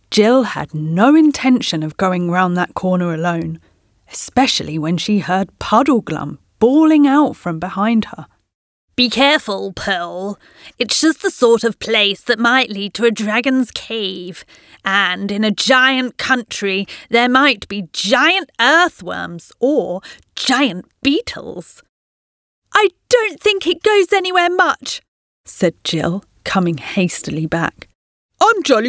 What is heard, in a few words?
Audiobook